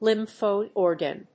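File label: "lymphoid organ", English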